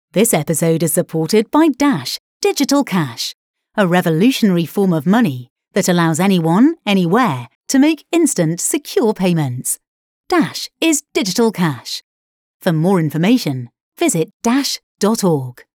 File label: Pre-roll Money